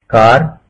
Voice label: hindi car